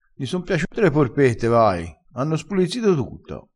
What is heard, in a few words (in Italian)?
"spulizzito", vocabolario dialettale